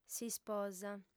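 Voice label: "s'isposa", Sardinian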